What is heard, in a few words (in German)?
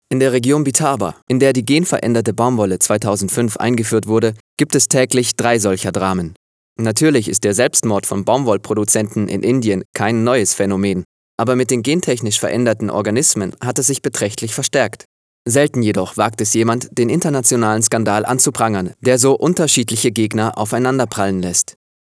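Kommentar Dynamisch; Fremdtake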